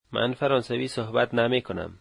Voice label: I dont speak French, Dari